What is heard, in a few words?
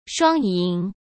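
"双赢", Mandarin Chinese; hsk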